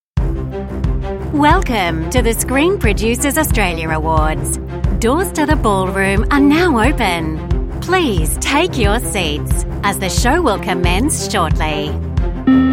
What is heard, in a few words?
Corporate